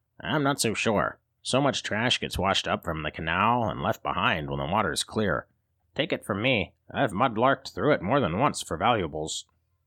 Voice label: Quick-witted and cunning halfling rogue with charm, mischief, and clever heroism, Audiobook Hero; Mick